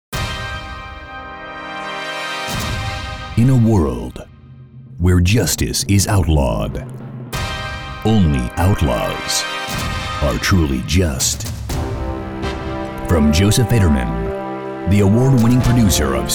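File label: American English, Schauspiel Variabel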